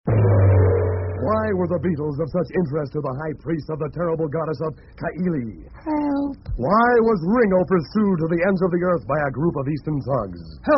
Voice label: promo, radio spots; psychedelic promos and radio spots